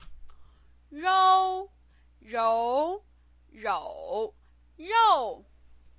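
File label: "rou", Mandarin Chinese pinyin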